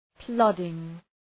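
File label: "plodding", English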